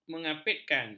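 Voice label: "mengapitkan", Malay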